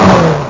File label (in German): langsam; speedy